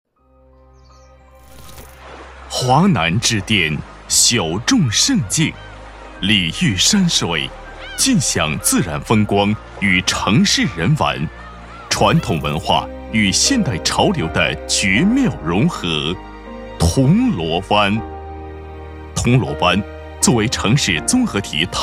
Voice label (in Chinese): 地产 大气 高端